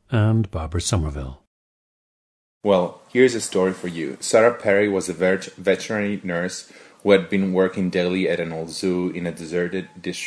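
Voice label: italy, International Dialects of English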